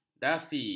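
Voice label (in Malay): "dasi", Malay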